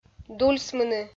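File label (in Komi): "дульсмыны", Komi, female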